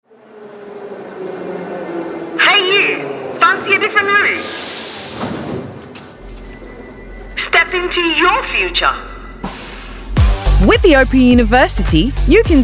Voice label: female african and english slight ghanian accent commercial; open university